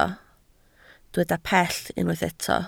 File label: "pell", Welsh